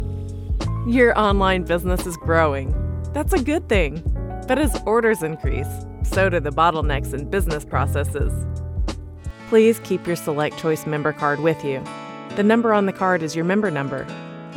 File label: Corporate Narration